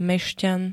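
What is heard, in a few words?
"mešťan", Slovak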